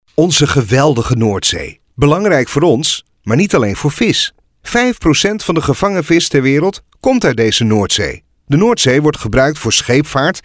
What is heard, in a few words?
corporate; stemacteuronline